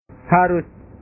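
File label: "harus", Indonesian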